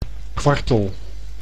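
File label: "kwartel", Dutch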